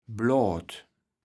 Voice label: "Bloat", kaevels platt

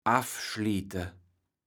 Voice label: "affschliete", kaevels platt

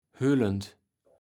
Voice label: "hüllend", kaevels platt

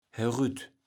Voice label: "herütt", Platt

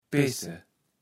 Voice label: "pesse", kaevels platt

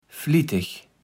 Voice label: "flitteg", kaevels platt

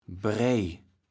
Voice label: "brej", kaevels platt

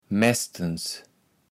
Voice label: "mästens", kaevels platt

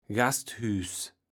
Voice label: "Gasthüß", Platt

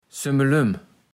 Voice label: "Sümmelüm(ke)", kaevels platt

